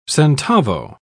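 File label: "centavo", British English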